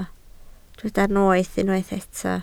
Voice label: "noeth", Welsh